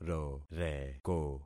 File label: "roreko", concat syllables; Affixing experiment